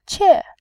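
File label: "qie", Mandarin Chinese, fourth tone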